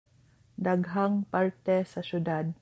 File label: Cebuano